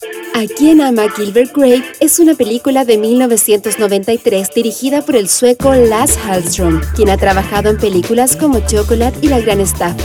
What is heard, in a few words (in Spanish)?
Relato